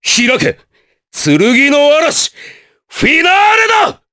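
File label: Vox Skill, voices, Japanese; Nicx; kings raid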